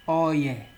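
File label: "oil", Nigerian Pidgin, male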